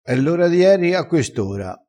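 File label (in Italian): "e l ora", dialettale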